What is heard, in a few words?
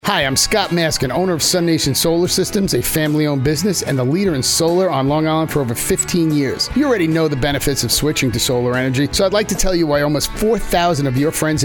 Radio Spot; SUNation